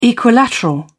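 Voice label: "equilateral", British English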